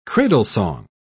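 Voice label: "cradlesong", British English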